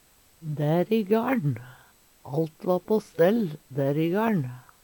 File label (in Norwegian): "dær i gaL`n", Numedalsmål; på den staden, heime hjå; Uttrykk